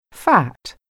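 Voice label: "fat", English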